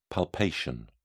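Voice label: "palpation", British English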